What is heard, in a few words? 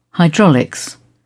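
"hydraulics", British English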